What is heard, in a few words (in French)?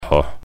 "ho", prononciation; Lahoh